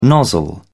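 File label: "nozzle", British English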